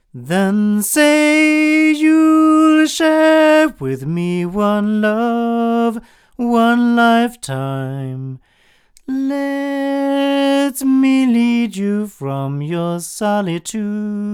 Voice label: Generis GT2 Mk2 cardioid male